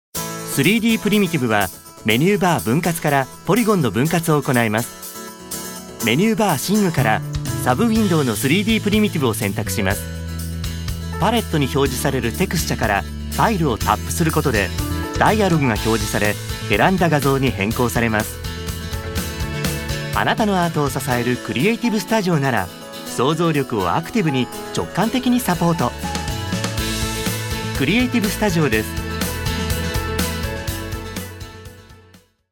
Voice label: japanese e-learning